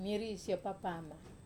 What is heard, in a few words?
"mari so papama", Wamesa